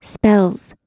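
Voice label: "spells", American English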